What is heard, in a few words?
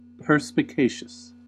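"perspicacious", US English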